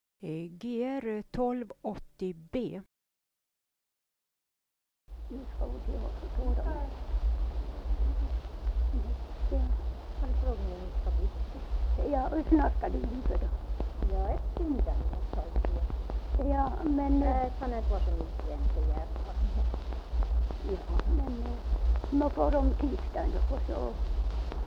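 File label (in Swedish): Venjan Dalarna, dialekter; Folkminnen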